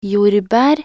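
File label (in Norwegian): "jordbær", Norwegian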